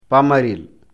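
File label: "pamarel", Fula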